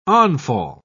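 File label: "onfall", British English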